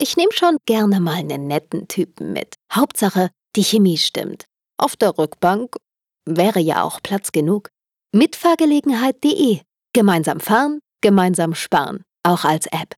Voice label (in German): Fremdtake Freundlich